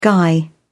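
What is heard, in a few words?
"guy", British English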